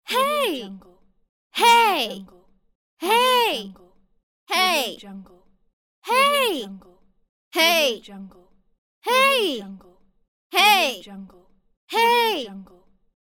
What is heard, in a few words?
girl offended hey shout pack